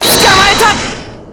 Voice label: gotcha jap; Hazama